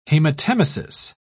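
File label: "haematemesis", British English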